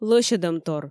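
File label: "лӧсьӧдӧмтор", Komi, female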